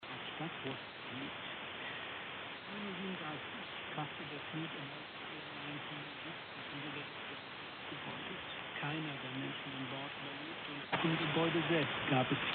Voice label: 13780khz; Deutsche Welle